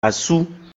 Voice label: Male; Mâle ---> Asú; mina